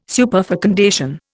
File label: "superfecundation", Indian